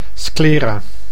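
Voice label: "sclera", Dutch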